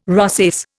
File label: "rosace", Indian